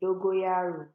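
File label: "dogoyaro", Nigerian Pidgin, female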